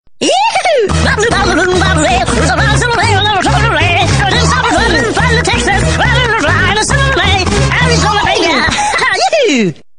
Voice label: Crazy Cowboy